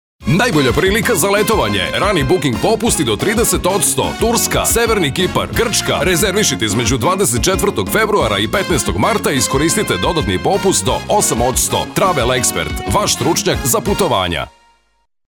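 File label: Serbian male voice over, sales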